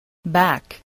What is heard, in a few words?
"back", english